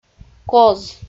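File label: "коз", Komi, female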